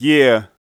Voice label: vocal one shot "yeah"